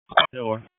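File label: Sheriff's Office Dispatch 1, p25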